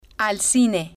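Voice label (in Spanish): al Cine, spanish, female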